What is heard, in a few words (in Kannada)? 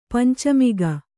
"ಪಂಚಮುಖಿ", Kannada